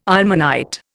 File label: "ilmenite", Indian